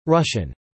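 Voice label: "Russian" spoken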